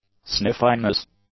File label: "sniffiness", english